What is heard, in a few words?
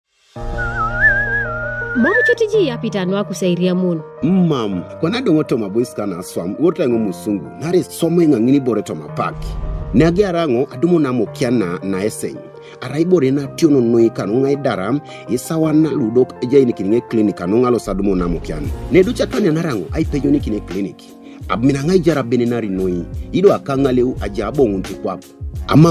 Time Up HIV Spot ART Adherence Challenges Ateso; radio spot